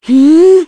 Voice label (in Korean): Vox Casting, kr; voices; heroes; Aselica; kingsraid